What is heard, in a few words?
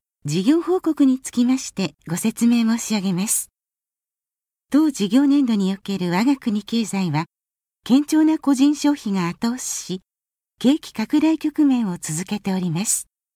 Narration